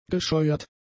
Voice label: "gescheuert", German; adjectives